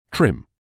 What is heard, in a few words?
"trim", medical English